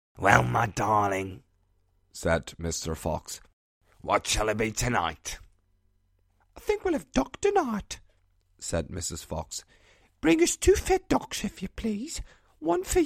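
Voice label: Dutch, Accented English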